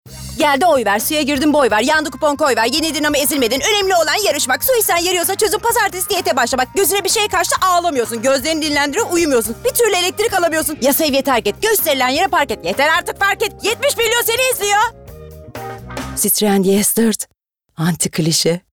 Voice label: Komik

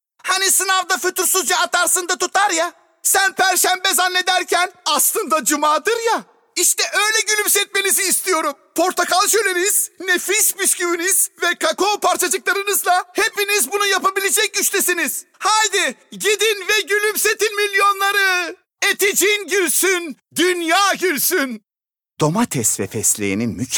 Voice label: REKLAM

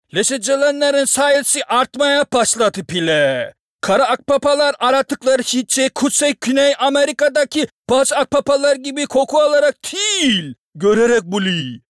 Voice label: Karadeniz Lehcesi

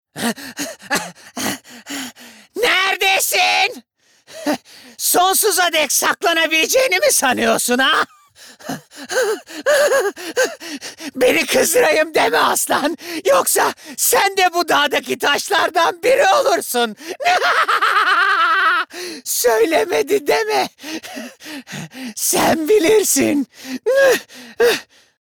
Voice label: Animasyon

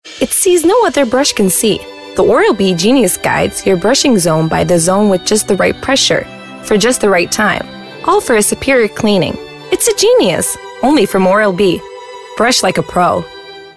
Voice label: INGILIZCE

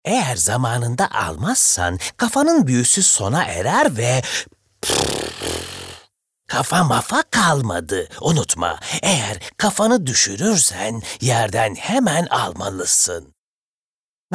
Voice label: ANIMASYON

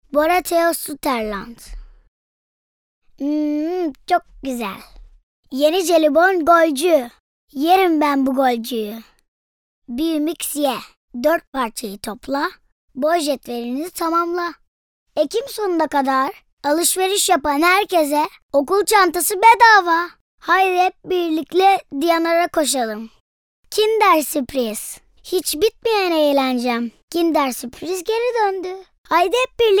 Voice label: Reklam

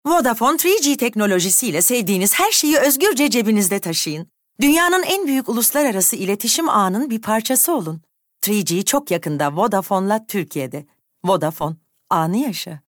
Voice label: Reklam Neşeli Dinamik

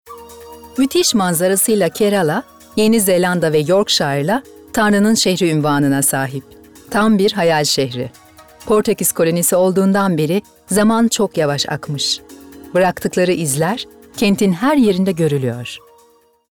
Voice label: Belgesel

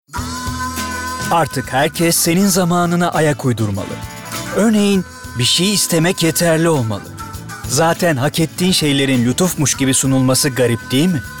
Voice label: Romantik Duygusal

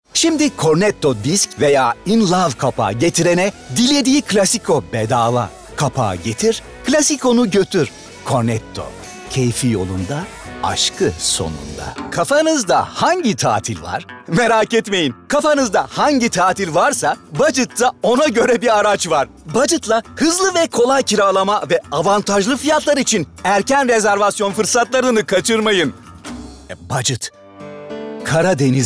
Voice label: Reklam

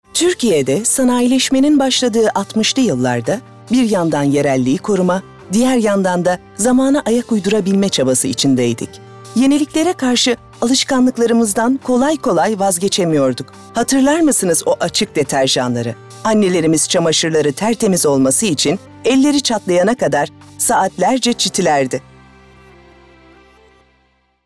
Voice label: Tanitim

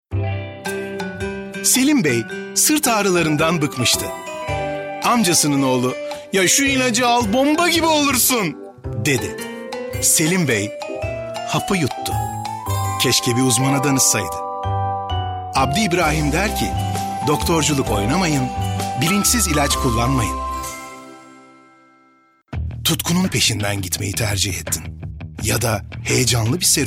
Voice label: reklam, tr

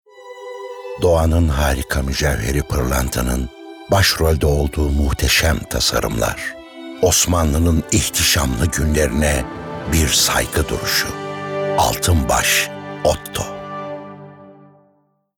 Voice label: Romantik

